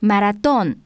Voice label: spanish marathon; samsung YP-U5; Audio User Interface